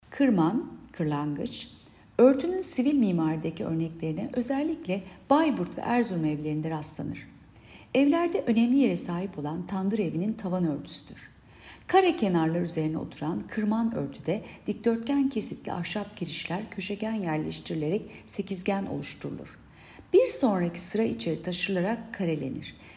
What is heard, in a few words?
turkce